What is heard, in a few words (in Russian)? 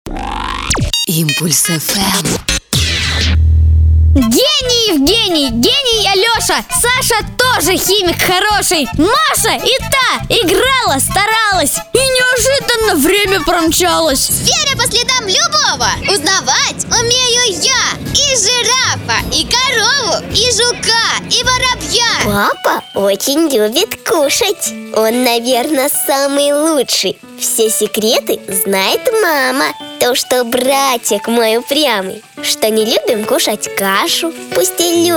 С детскими голосами; Impulsefm